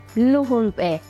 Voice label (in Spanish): "diez", Chol; números